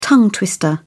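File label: "tongue twister", British English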